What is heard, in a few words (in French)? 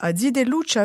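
"zitelluccia", Moita Verde (nord)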